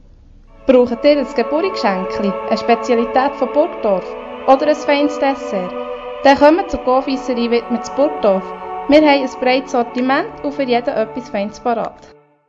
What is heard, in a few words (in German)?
Werbespot; Confiserie Widmer